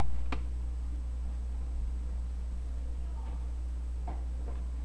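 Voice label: "pick", spoken word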